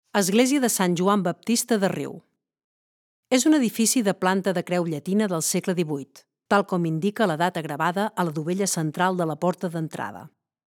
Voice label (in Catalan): locucio catala; sant joan riu